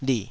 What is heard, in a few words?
"lih3", Taiwanese syllable